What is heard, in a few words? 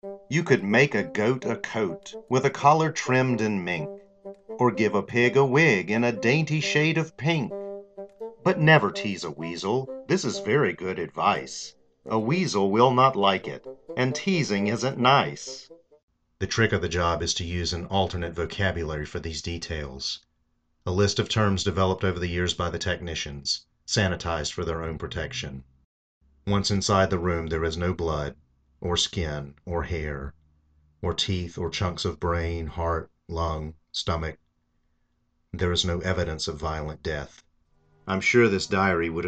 audiobook